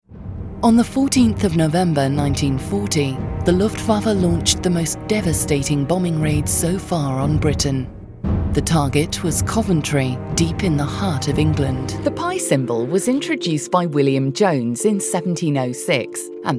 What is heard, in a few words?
Narration Voicereel